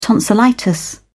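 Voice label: "tonsillitis", British English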